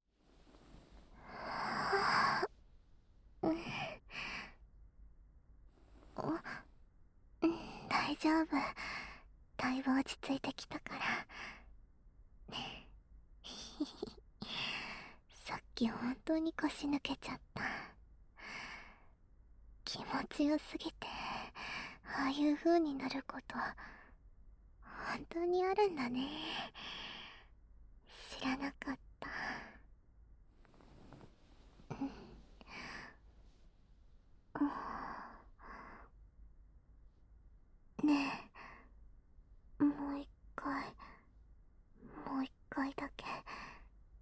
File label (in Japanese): ピロートーク, ASMR; 貴方の事が好きすぎる彼女(候補)